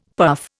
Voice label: "puff", Indian; Dictionary